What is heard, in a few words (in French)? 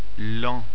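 "lent", French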